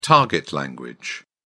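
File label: "target language", British English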